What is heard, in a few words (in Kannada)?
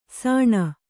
"ಸಣು", Kannada